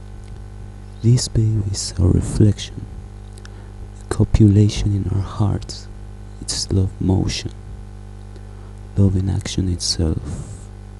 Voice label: poetry audio